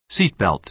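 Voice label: "seat belt", American English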